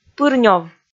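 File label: "пырнёв", Komi, female; kpv audio dict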